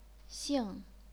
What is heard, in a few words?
"xing4", chinese pinyin